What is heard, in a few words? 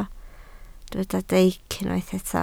"deic", Welsh